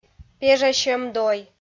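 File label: "пежасьӧм дой", Komi, female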